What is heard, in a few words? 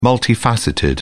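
"multifaceted", English, British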